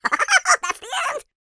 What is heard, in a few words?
cartoon character high pitched voice says ha ha ha ha ha thats the end